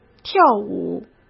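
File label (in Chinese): "跳舞", Mandarin Chinese; hsk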